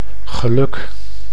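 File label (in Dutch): "geluk", Dutch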